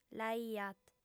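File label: "naiat", Sardinian, female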